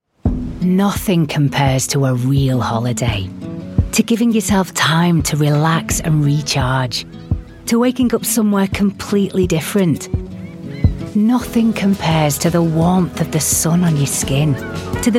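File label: commercial, Northern Authentic Lancashire Voice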